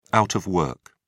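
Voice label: "out of work", British English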